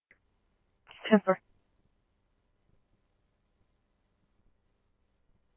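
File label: scanner, Phoenix Police Department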